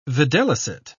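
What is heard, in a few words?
"videlicet", British English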